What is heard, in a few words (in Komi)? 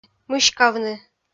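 "мыськавны", Komi, female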